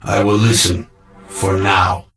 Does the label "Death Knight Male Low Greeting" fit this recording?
Yes